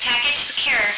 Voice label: guard, radio female

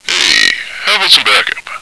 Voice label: backup, radio, male

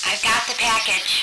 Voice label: radio, female

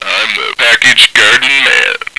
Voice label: guard, radio, male

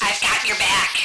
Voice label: escort, radio, female

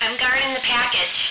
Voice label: guard, radio female

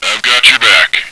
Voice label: escort, radio, male

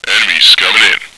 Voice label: incoming, radio male

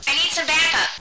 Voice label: backup, radio, female

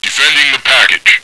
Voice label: guard, radio, male

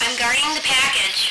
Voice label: guard, radio female

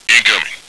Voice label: incoming, radio male

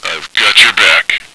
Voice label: escort, radio, male

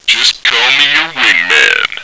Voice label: escort, radio, male

